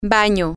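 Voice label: bathroom, spanish, female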